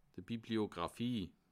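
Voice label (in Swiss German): "bibliographie", Bas Rhin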